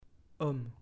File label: "homme", French